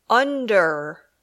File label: "under", English